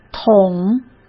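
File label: "桶", Mandarin Chinese; hsk; chinese-flashcards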